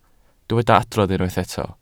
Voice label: "adrodd", Welsh